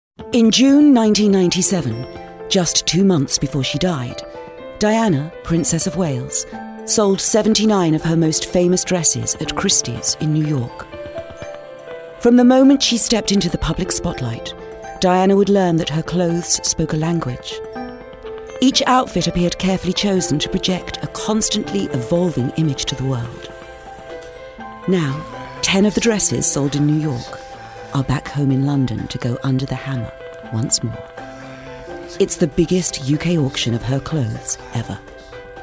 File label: narrations